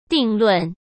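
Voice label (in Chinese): "定论", Mandarin Chinese; hsk; chinese-flashcards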